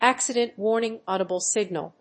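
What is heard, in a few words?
"accident warning audible signal", English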